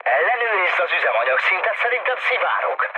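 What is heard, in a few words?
Hungarian tank damaged mood low, speech; War Thunder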